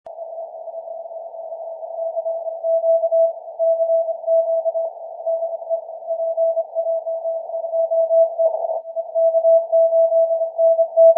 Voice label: CQ as heard in JA